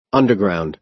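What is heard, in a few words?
"underground", American English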